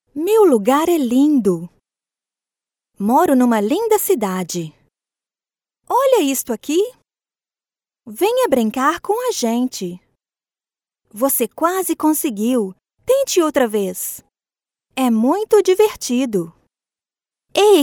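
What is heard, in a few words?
Brazilian female children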